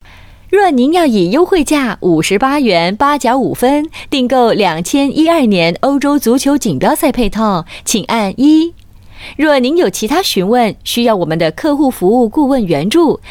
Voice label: Mandarin, China Female